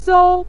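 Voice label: "sou", Mandarin Chinese syllable, neutral tone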